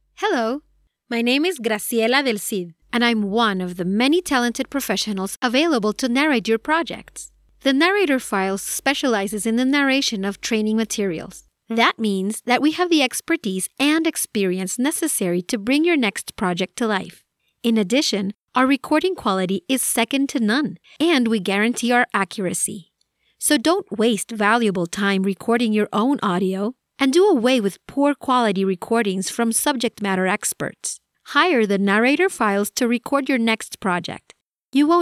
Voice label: English; Female